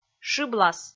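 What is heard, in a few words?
"шыблас", Komi, female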